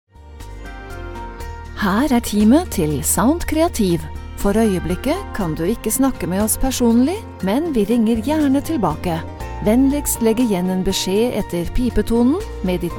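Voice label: Norwegian, female, phone message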